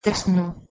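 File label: "trsno", Proto-Indo-European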